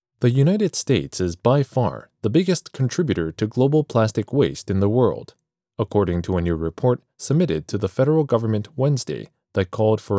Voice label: news